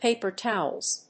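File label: "paper towels", English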